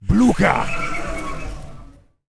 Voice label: Death Knight Angry; warcraft3